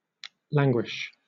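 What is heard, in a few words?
"languish", British English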